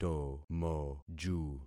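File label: "tomoju", concatenated syllables; Affixing experiment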